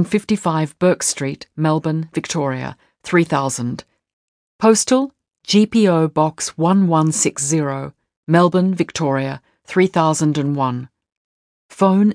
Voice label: Back Cover and Closing Announcement